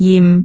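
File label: "jim4", Cantonese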